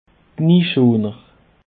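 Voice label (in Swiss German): "knieschoner", Alsatian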